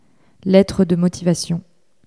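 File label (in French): "lettre de motivation" French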